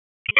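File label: EMS DISP, radio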